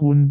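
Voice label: "gun6", Cantonese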